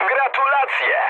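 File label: player killed enemy mood med, speech Polish; War Thunder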